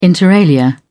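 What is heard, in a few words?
"inter alia", British English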